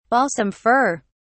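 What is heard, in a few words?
"balsam fir", British English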